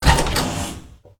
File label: announcer slide, basketball